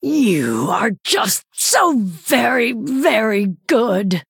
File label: Female Night Elf Well Played